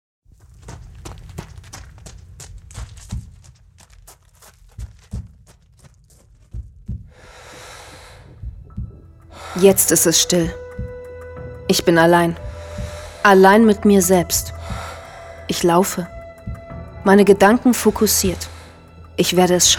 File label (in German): Synchron; Olympia